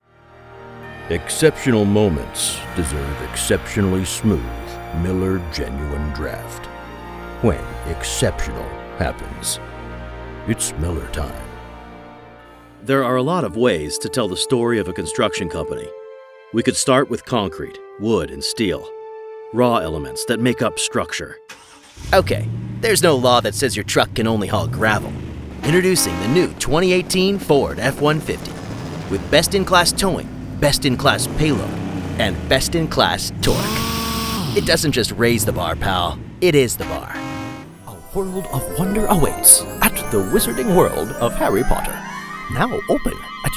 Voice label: TV Commercials

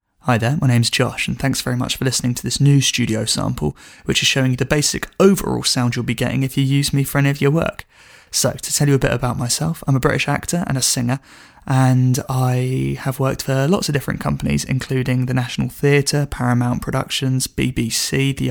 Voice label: Natural Voice

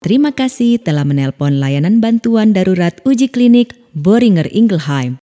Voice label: On Hold IVR Indonesian Female

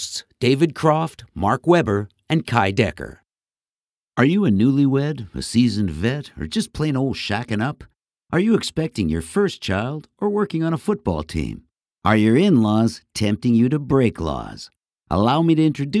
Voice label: Podcast

